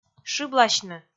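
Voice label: "шыбласьны", Komi, female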